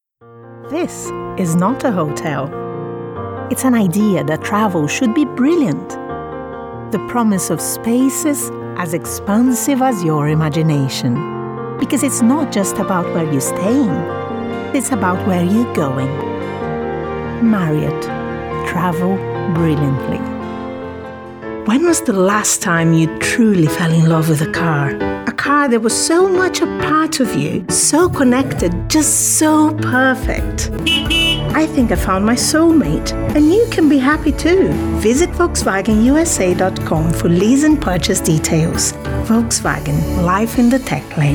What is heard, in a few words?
Commercials English